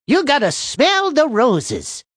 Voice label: Gotta Smell Roses, Male; Talking; Voice Box